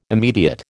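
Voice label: "immediate", American English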